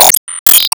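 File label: Voice Emo Glad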